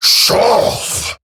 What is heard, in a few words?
Ability Short Cast, Grineer Male, en; Eximus; Battle